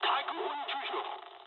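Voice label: damaged aa mood med, speech japanese; War Thunder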